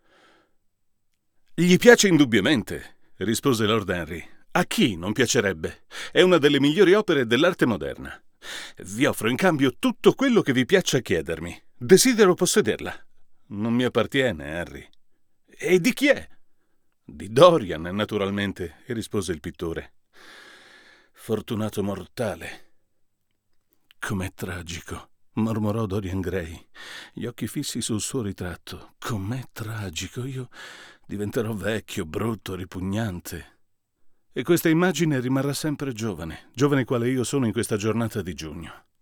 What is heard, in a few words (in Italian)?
sEX1 USB per confronto con U87AI